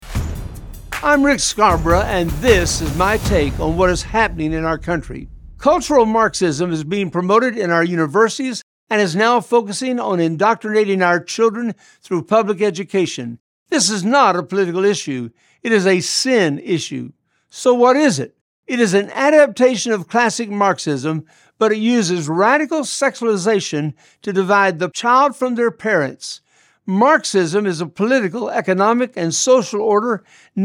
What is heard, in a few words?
Radio Spot; Social Marxism